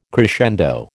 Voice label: "crescendo", American